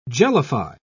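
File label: "jellify", British English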